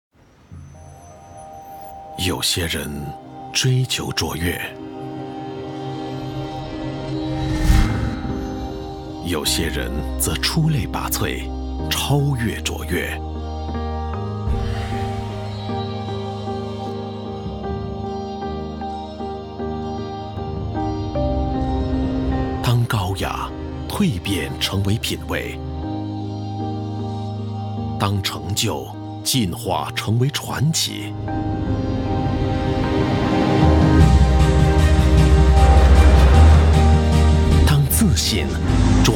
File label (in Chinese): 配音; NISSAN Sylphy